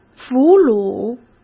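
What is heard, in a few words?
"俘虏", Mandarin Chinese; chinese-flashcards; hsk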